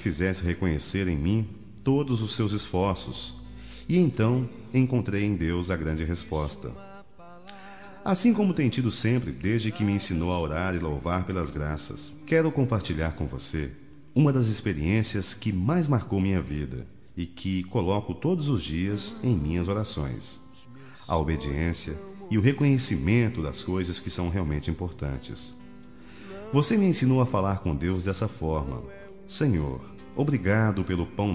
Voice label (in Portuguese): mãe gospel, Aniversário de Mãe Gospel – Voz Masculina; Telemensagens para todas as ocasiões